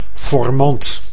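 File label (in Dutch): "formant", Dutch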